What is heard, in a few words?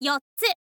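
"yottsu", Japanese, girl; voice; info girl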